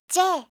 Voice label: "j", alphabet